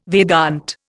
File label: "Vedanta", Indian